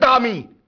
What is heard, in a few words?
"Tammi" lang